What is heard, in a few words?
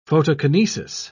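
"photokinesis", British English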